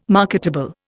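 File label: "marketable", Indian English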